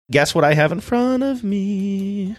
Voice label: saying guess what i have in front of me sing songy